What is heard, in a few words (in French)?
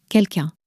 "quelqu'un", French